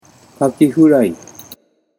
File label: "kakifurai", Japanese